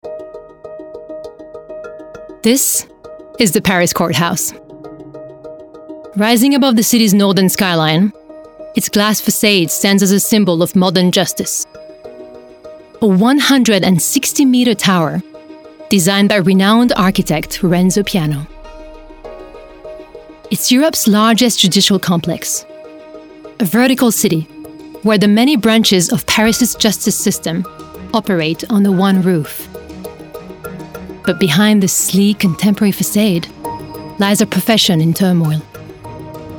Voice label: Documentary